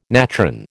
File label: "natron", American